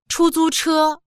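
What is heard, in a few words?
"chu1zu1che1", Chinese; 出租车; タクシー; dictionary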